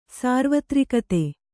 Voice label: "ಸಾರ್ವತ್ರಿಕತೆ", kannada